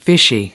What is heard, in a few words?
"fishy", us